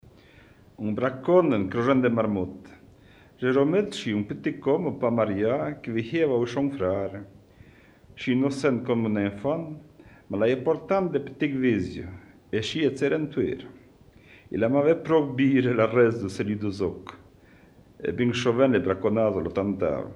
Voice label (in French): patois de Chandolin Anniviers dialogue; patois valaisans; Braconnage a Chandolin